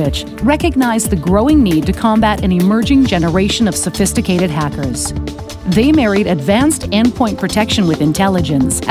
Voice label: Narration Technology cyber security B2B computer modern; Crowdstrike